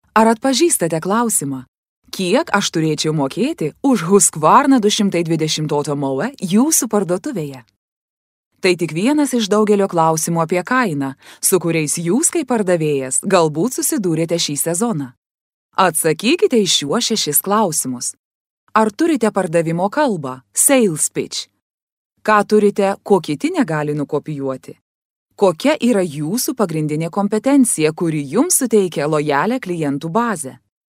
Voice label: LITHUANIAN